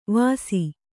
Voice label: "ವಾಸಿ", Kannada

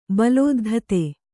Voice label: "ಬಲೋದ್ಧತೆ", Kannada

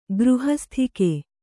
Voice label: "ಗೃಹಸ್ಥಿಕೆ", Kannada

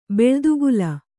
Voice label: "ಬೆಳ್ದುಗುಲ", Kannada

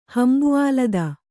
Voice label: "ಹಂಬುಆಲದ", Kannada

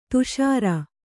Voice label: "ಧಸರಿ", Kannada

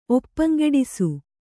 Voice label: "ಒಪ್ಪಂಗೆಡಿಸು", Kannada

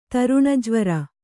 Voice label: "ತರುಣಜ್ವರ", Kannada

